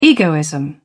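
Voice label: "egoism", British English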